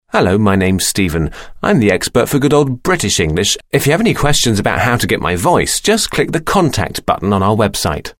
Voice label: uk english